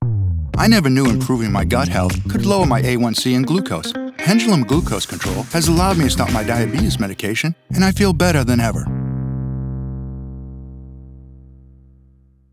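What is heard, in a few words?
Commercial VO; PENDULUM GLOCOSE CONTROL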